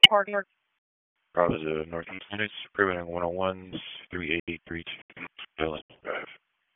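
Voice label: Police Northeast District Dispatch; Raleigh; wake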